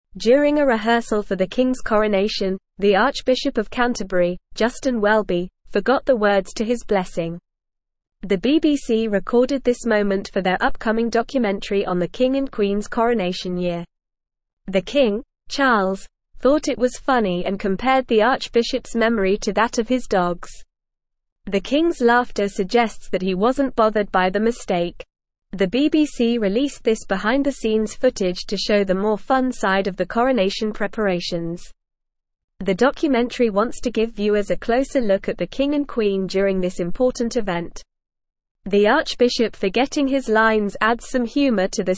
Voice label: English Upper Intermediate NORMAL Reading; English Newsroom